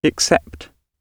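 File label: "except", British English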